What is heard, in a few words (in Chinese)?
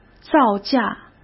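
"造价", Mandarin Chinese; hsk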